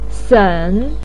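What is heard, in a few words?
"sen", Mandarin Chinese, third tone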